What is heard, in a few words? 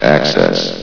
"access", vox; Source Engine; bshift